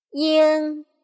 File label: "ing", pinyin